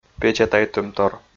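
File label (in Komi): "печатайтӧмтор", Komi, male; audio dict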